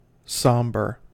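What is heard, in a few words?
"somber", US English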